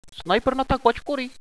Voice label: sniper down, radio bot